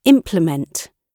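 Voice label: "implement", British English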